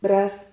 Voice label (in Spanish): "bras", Silabas